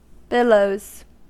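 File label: "billows", American English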